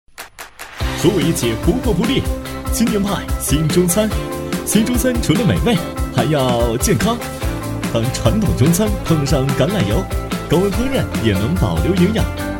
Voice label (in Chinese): 男 广告配音 年轻活力; 新中派; 厚重有力 轻松活泼